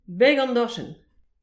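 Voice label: "beg an dorchenn", Breton; petit precis de prononciation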